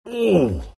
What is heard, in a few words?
tusk pain